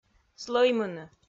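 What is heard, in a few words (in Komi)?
"слӧймыны", Komi, female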